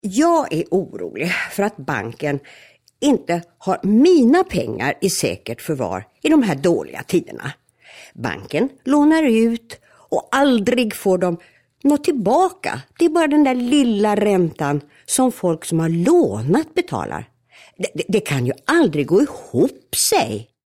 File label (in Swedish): svensk kvinna